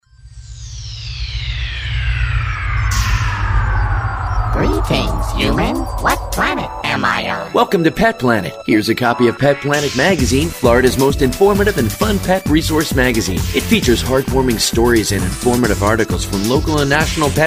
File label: Commercial; PetPlanet; PetExpo